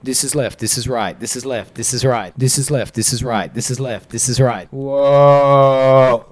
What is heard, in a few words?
This Is Left Stereo